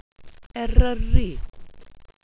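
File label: "eryri", welsh pronunciations; Wales/welsh names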